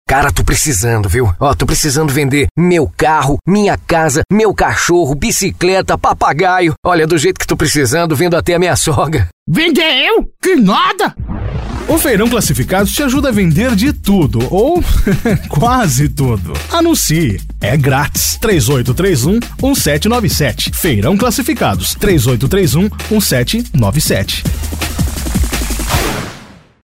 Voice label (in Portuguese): locutor caricata; feirao classificados